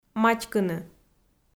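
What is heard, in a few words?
"матькыны", Komi, female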